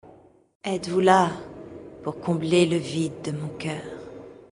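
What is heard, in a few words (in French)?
Female Flirt, voix françaises; Elfe du Vide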